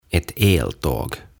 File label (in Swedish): "Ett eltåg", "Sähköjuna"